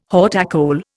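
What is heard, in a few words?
"haute école", Indian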